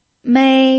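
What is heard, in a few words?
"mei", pinyin, first tone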